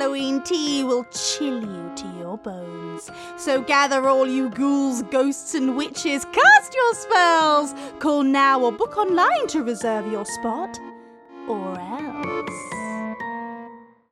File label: spooky afternoon tea VO with music